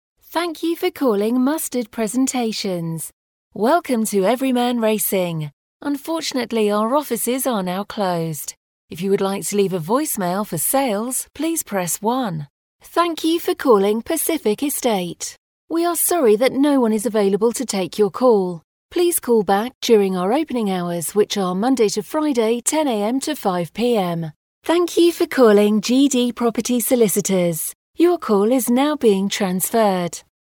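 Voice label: English British, female, IVR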